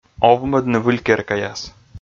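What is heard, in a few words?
"овмӧдны выль керкаяс", Komi, male; kpv audio dict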